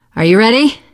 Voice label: ask ready, survivor voice teen girl; source engine; left 4 dead 2

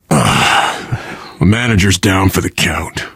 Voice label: grief manager, survivor voice

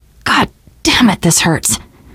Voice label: going to die light, teen girl; survivor voice

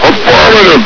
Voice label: "affirmative!", hgrunt; hl1; Source engine

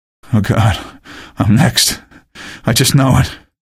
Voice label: buddy killed, male; video games; npc; source engine; episodic; episode 1

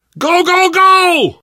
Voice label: emphatic go, survivor voice

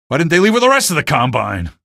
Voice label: alert soldier, male; video games; npc; source engine; episodic; episode 1

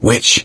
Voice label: warn witch, gambler, survivor voice; source engine; left 4 dead 2

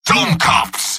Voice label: jeers; medic; video games; source engine; tf; mvm

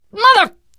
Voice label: swear, teengirl; survivor voice

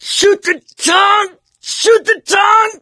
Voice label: choke, survivor voice mechanic; source engine; left 4 dead 2

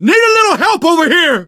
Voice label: help, survivor voice, manager; source engine; left 4 dead 2

